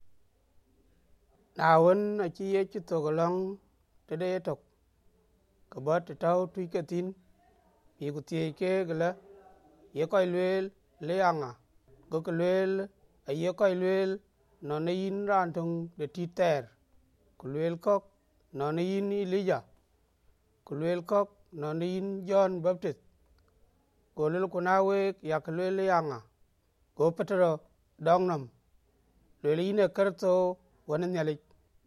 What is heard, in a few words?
Dinka Padong; Who Do People Say I Am